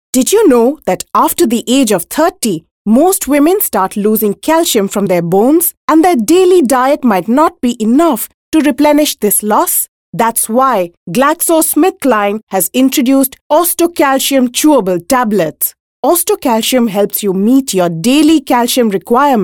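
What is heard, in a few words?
English, female voice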